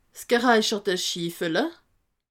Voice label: "schifele", alsace

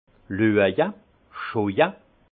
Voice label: "löje", alsace; vin; regarder

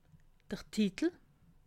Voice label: "titel", alsace

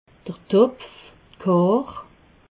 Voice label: "topf", alsace

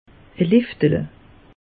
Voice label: "liftele", alsace; meteo

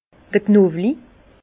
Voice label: "knowli", Bas Rhin; vin; ail

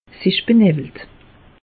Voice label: "benawelt", alsace; meteo

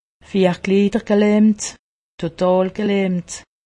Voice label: "totalgelahmt", alsace; medecine

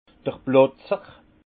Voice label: "plotzer", alsace; vin

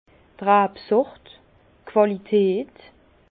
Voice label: "rabsort", Bas Rhin; vin

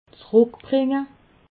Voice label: "zeruckbringe", alsace

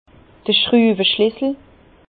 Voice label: "schtruweschlissel", Bas Rhin; vin; clé à écrou

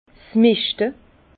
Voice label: "meschte", Bas Rhin; fumure; vin

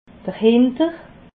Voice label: "hintere", alsace

